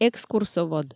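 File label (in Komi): "экскурсовод", Komi, female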